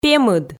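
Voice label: "пемыд", Komi, female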